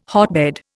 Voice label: "hotbed", Indian